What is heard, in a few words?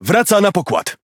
Speech Pilots Fighter Land, PL; World Of Warships